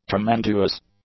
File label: "tremenduous", English; adjectives